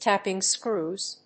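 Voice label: "tapping screws", English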